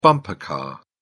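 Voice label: "bumper car", British English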